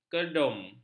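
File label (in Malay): "kerdum", Malay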